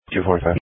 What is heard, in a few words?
Sheriff Dispatch